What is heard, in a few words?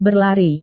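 "berlari", Indonesian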